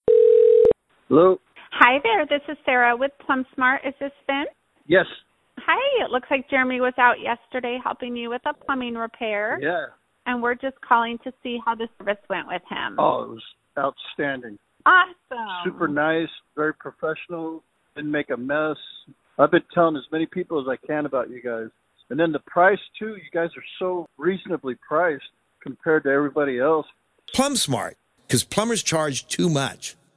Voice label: Customer Testimonial; PlumbSmart